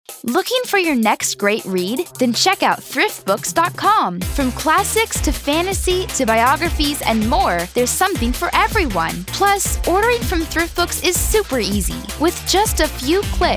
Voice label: Commercial